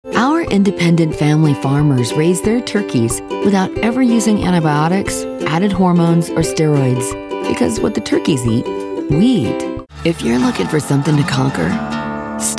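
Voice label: commercial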